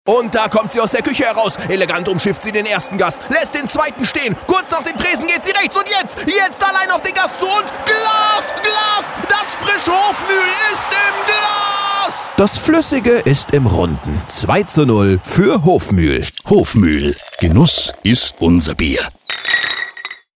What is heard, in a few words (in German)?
Radiospot; Hofmuehl Glas